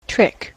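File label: "trick", American English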